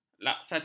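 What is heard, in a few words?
"laksatif", Malay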